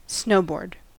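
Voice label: "snowboard", American English; pronunciations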